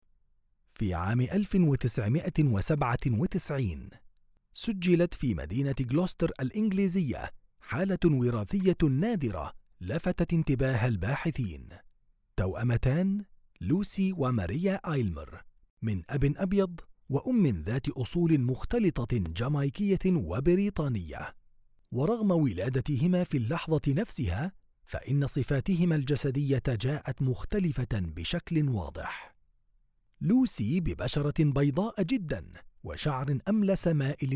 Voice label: Arabic M Documentary

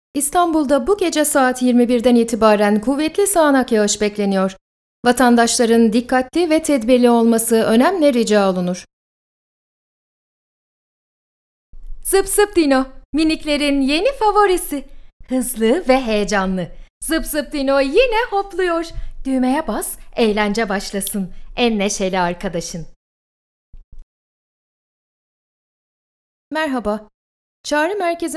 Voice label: Turkish, F, Narration

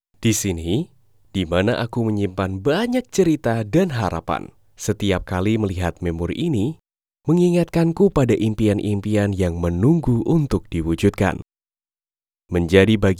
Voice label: Indonesian Bahasa, M, Corporate

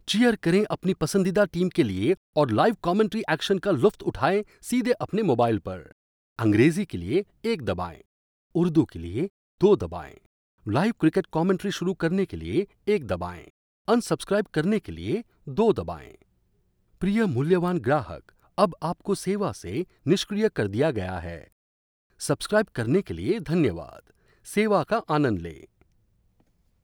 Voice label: Hindi, M, Commercial

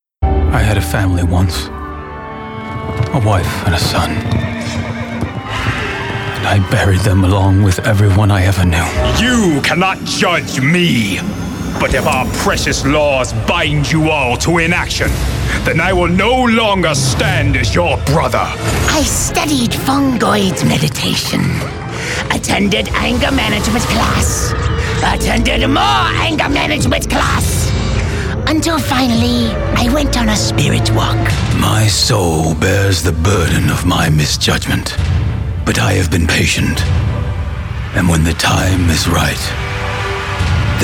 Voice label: English US, M, Gaming, american

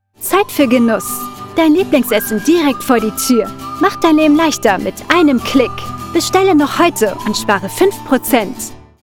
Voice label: German F Commercial; voice over

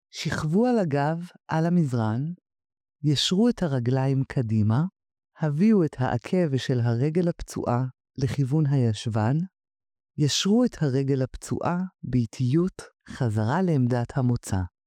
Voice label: Hebrew, F, Medical

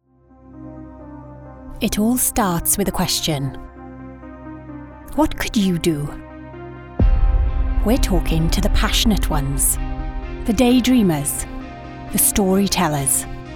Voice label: Welsh English, F, AD, accent of wales

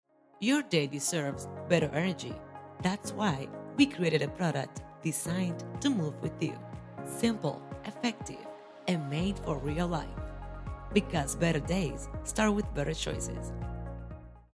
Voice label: English EU, F, AD, accent of spain

